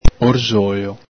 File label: "orxolo", Venetian